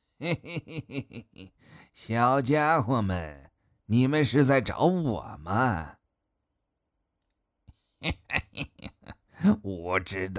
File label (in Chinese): 儿童剧反派